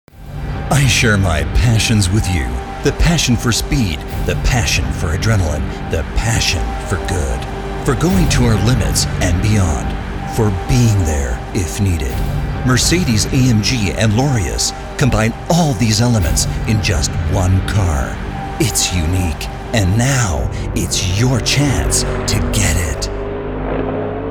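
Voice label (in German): American English Variabel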